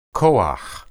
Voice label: "koah", Hebrew

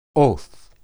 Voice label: "oth", Hebrew

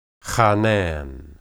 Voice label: "hanan", Hebrew

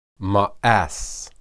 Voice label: "maas", Hebrew

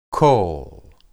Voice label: "kol", Hebrew

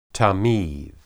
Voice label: "tamid", Hebrew

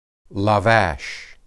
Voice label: "labash", Hebrew

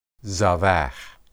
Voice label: "zabah", Hebrew

